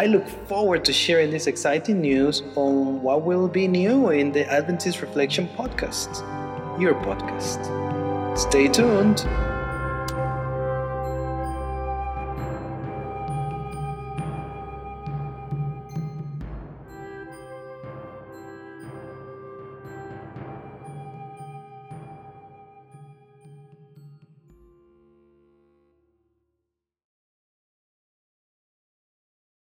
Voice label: Promo edited vocals

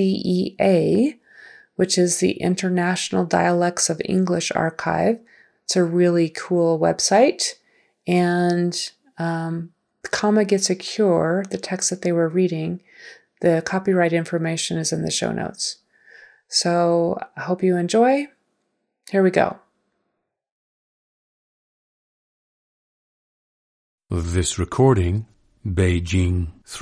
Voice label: Chinese Bejing Accent

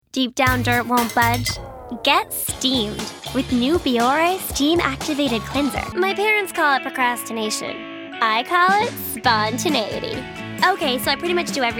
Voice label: commercial

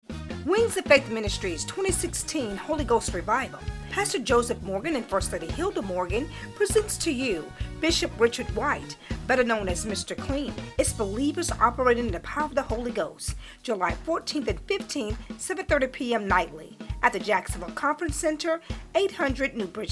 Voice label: Commercial; WOFM

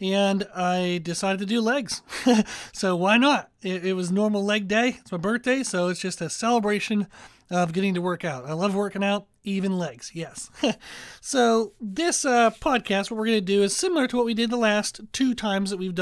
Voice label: Workout Voiceover; Training; YouTube; Birthday Leg Workout

